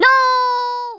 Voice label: nooo, Drill Sergeant; Worms Armageddon